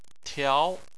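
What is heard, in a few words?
"tiao", Chinese, second tone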